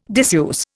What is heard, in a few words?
"diseuse", Indian